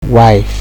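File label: "wife", Nigerian Pidgin, male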